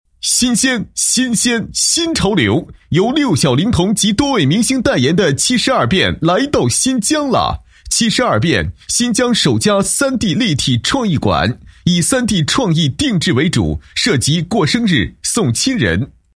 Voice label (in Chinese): 男 宣传广告; 七十二变3D立体创意馆